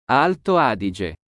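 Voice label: "Alto Adige", Italian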